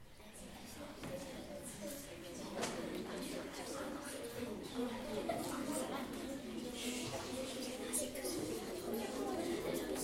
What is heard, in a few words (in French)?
bavardages